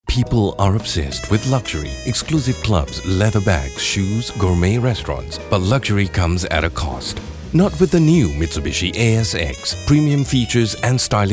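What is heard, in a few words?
English; MITSUBISHI ASX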